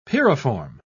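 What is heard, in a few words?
"pyriform", British English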